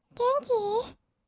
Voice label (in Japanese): げんきー？, kawaii voice; ASMR; 挨拶; 1200 kawaii voice!!